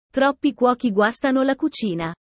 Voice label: italian sayings about life